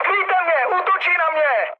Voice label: aircraft damaged, speech cze, mood high